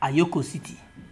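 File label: "ayoko city", Nigerian Pidgin, male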